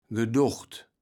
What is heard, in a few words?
"gedocht", Kaevels Platt